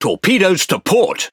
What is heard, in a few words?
Speech Alarms Torpedo Left, EN GB; World Of Warships